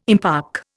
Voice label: "impark", Indian